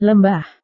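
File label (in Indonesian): "lembah", Indonesian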